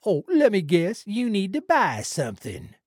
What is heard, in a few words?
trader male dislike greeting